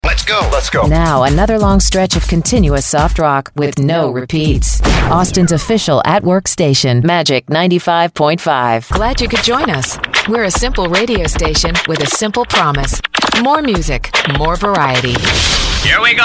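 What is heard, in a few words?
softrock adult contemporary; radio imaging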